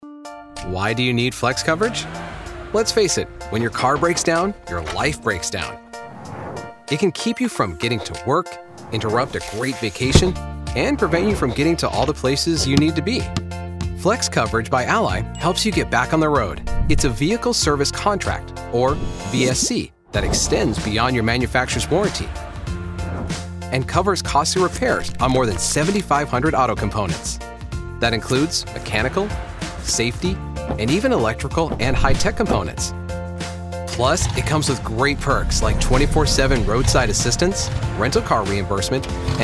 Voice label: explainer

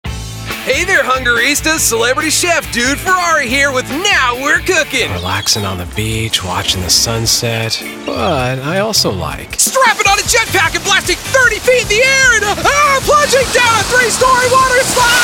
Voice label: character

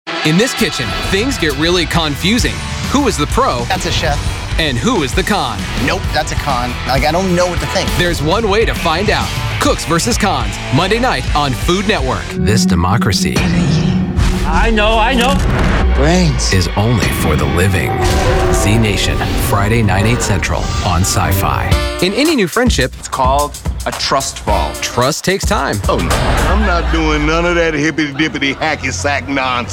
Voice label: promos

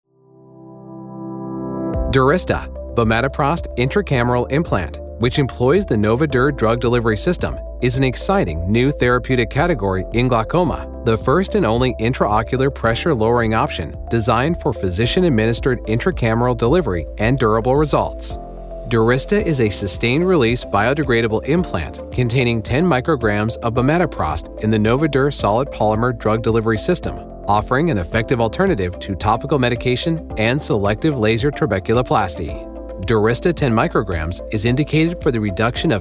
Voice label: medical narration

